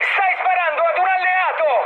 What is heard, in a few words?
player friendly fire mood high, speech it